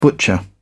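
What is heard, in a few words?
"butcher", English, British English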